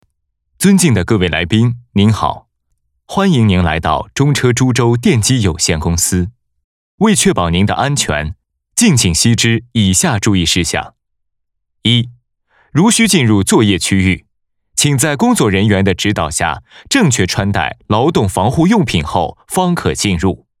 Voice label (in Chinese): 男 播报 稳重 尊敬的来宾